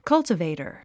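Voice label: "cultivator", English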